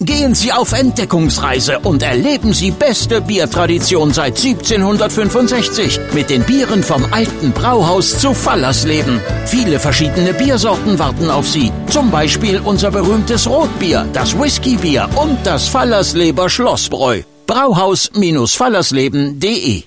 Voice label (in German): Radio Spot; Brauhaus Fallersleben